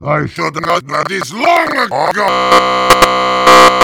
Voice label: rage heavy; missingteam